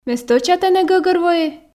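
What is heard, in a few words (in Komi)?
ме стӧча тэнӧ гӧгӧрвои, Komi, female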